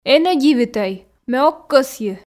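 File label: энӧ дивитӧй ме ог кӧсйы, Komi, female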